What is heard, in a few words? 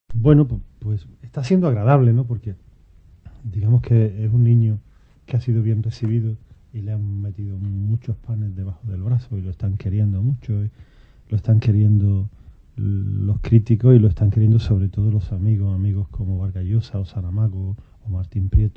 andaluz oriental, spanish accents; Eastern Andalusian